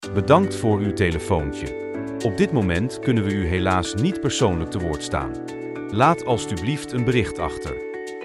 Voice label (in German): fritzbox ansage